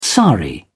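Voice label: "sari", British English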